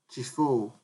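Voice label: "chiefo", Nigerian Pidgin, male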